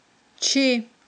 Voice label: "чи", Aghul